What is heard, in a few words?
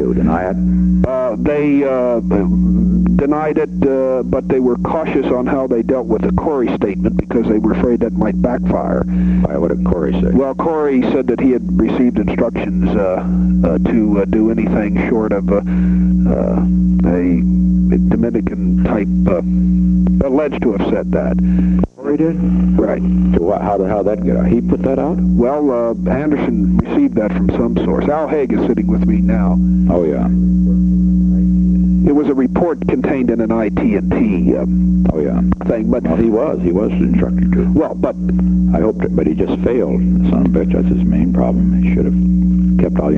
nixon chile phone